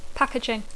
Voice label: "packaging", English

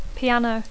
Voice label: "piano", English

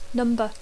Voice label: "number", English

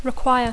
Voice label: "require", English

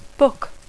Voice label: "book", English

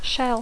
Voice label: "shell", English; closca; conquilla; clofolla; cartutx; intèrpret d'ordres; carcassa

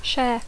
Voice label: "share", English

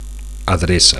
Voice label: "adreca", Catalan; (f) address

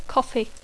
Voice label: "coffee", English

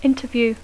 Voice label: "interview", English

